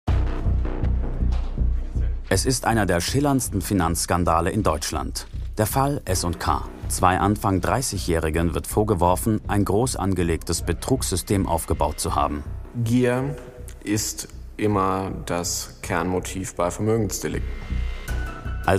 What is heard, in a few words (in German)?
doku; zdfinfo